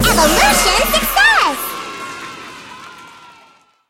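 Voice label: success, English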